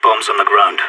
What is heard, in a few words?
spotted loose bomb, player vo sas